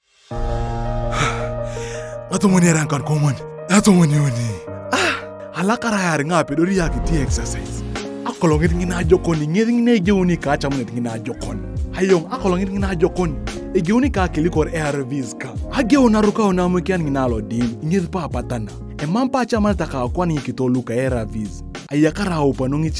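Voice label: HIV Spot Karamajong, radio spot; New on Treatment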